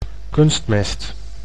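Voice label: "kunstmest", Dutch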